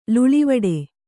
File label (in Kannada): "ಲೀಲೆವಡೆ", Kannada